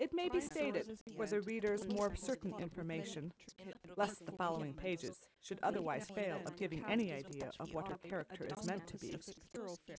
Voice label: female; SASSEC07